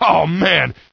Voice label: cheer; voice commands